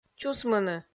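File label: "чусмыны", Komi, female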